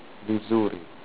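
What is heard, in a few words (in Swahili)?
"vizuri", Swahili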